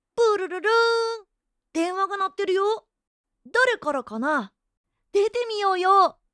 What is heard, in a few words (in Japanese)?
電話着信, かわいい